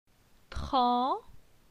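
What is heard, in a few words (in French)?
"Tran", French; phonetique; la syllabe an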